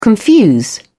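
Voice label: "confuse", British English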